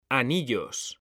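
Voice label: "anillos", Spanish, male; mekanta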